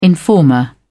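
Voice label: "informer", British English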